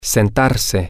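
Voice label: to Seat Himself, spanish, male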